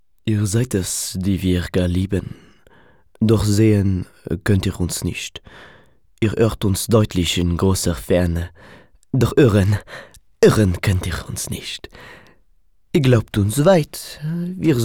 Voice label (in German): franzoesischer Akzent